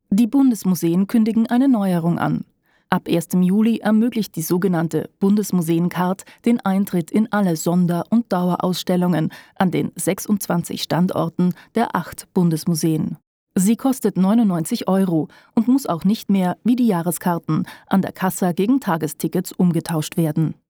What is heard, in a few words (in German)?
Nachrichten